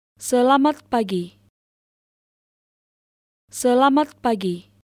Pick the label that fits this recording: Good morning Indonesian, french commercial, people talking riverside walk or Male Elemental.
Good morning Indonesian